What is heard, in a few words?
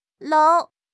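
"lou2", Chinese; HSK3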